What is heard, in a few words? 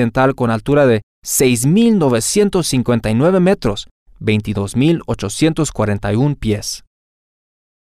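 Spanish, Argentina, que se puede hacer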